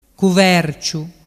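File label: "cuverciu", Vernazzese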